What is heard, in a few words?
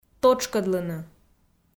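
"тотшкӧдлыны", Komi, female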